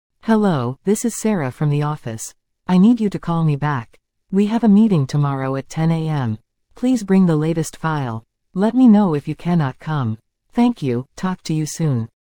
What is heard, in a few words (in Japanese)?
トーク ノーマル